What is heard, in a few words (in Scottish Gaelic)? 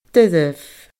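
"doirbh", Scottish Gaelic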